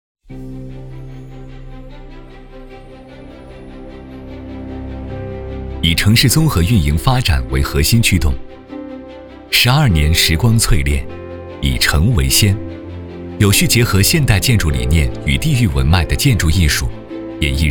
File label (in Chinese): 男 专题; 润江集团